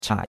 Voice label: "chai3", chinois; Cours de Chinois